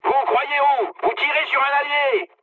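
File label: French player friendly fire mood med; War Thunder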